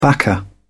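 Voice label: "backer", British English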